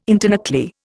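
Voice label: "internuclear", Indian English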